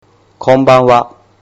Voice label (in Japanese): "konbanwa", Japanese